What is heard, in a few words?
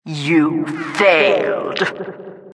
announcer you failed, vo